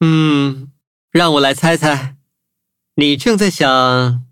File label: levelup zh-hant; Cookie Run